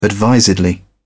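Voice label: "advisedly", British English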